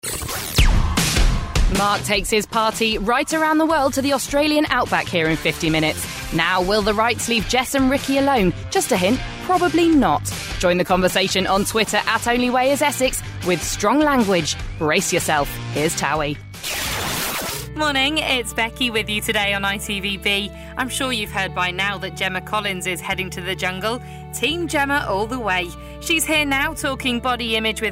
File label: Continuity; ITV